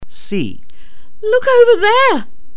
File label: emotion